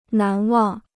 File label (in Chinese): "难忘", Chinese